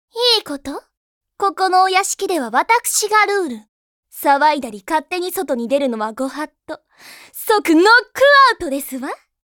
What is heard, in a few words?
lady